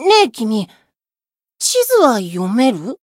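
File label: voice story, Japanese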